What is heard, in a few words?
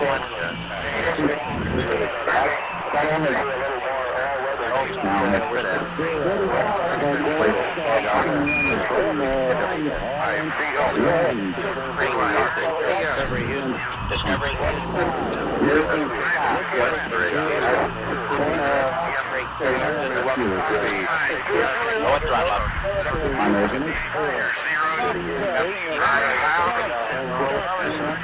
space chatter radio mission control